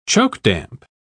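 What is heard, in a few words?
"chokedamp", British English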